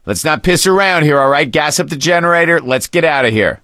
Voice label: elevator, survivor voice gambler; Left 4 Dead 2